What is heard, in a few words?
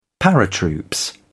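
"paratroops", British English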